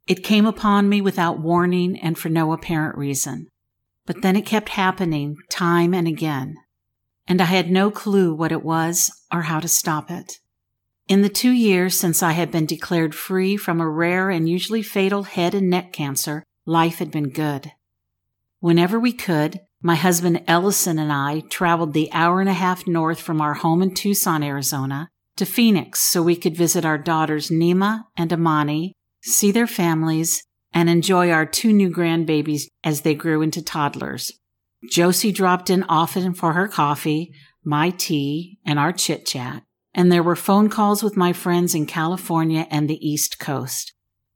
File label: Audiobook